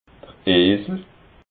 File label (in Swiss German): "esel", alsace